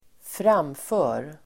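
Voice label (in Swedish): "framför", swedish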